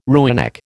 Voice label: "rooinek", American